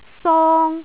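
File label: "song1", Cantonese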